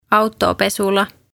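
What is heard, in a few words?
"autopesula", Estonian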